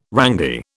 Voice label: "rangy", British